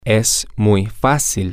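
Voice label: it is very easy, spanish, male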